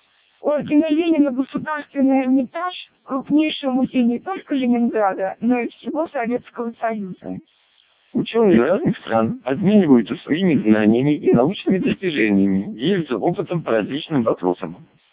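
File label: Russian speech, CODEC2 vocoder; CODEC2 700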